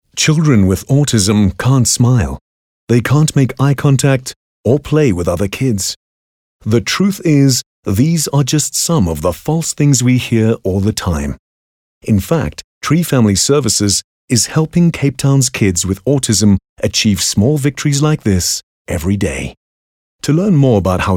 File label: English Soft Sell